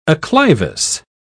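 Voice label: "acclivous", British English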